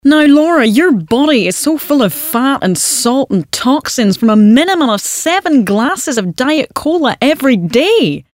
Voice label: Character; Vocal Point